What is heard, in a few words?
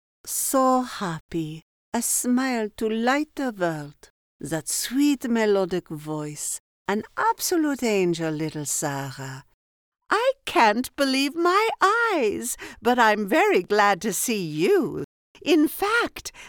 character, voiceover